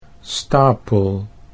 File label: "stapel", Dutch